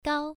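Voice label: "gao", Mandarin Chinese, first tone, pronunciation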